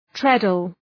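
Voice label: "treadle", English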